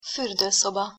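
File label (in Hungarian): "furdoszoba" Hungarian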